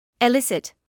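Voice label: "elicit", British English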